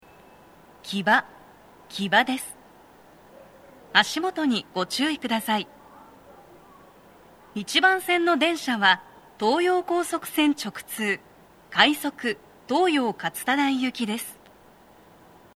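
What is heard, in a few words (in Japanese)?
到着放送, 女声, 運転士用スピーカーでの収録; 放送は「快速 東葉勝田台行き」です。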